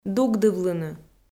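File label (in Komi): "дугдывлыны", Komi, female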